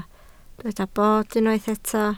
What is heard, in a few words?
"bord", Welsh